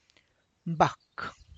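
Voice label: tlacochahuaya, Zapotec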